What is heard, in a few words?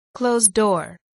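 "closed-door", English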